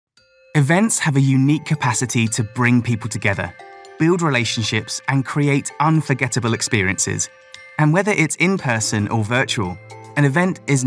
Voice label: elearning

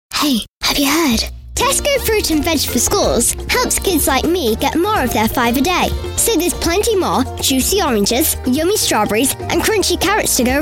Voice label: daughter 10; daughter 10 in 2026

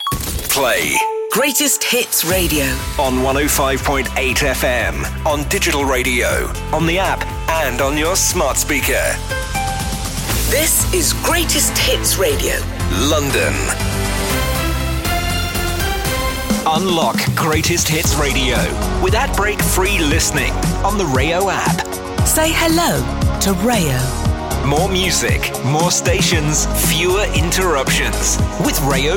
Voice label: promos

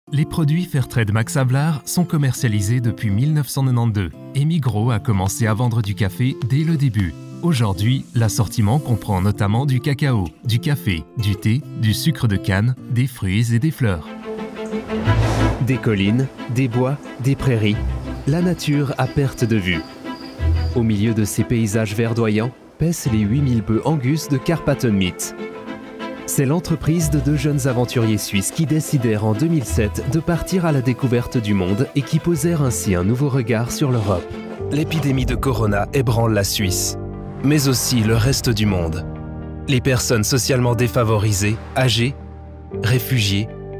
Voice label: french male swiss french montage

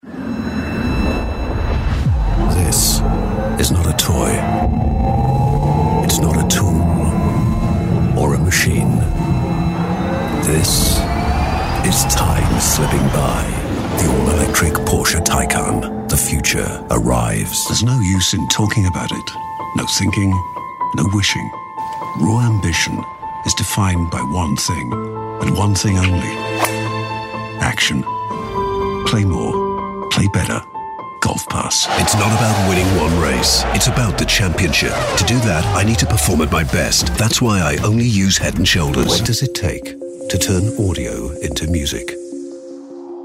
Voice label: commercial

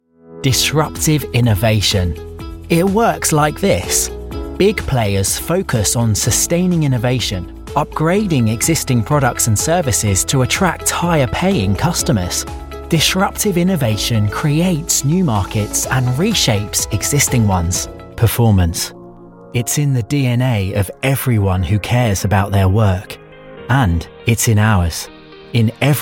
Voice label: corporate

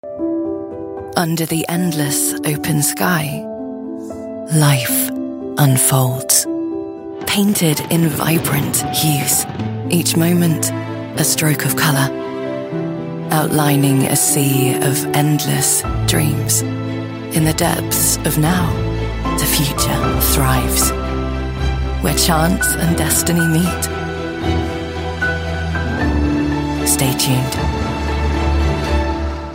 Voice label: enticing luxurious commercial

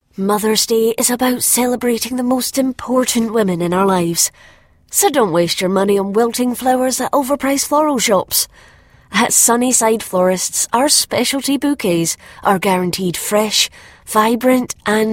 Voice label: scottish warm florist sad

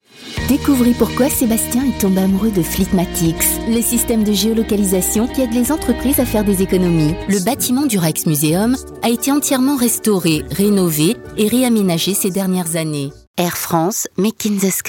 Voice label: French female corporate

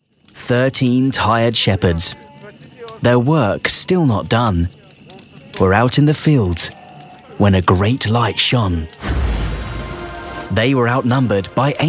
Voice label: audiobook, Authentic Youthful British Voiceover

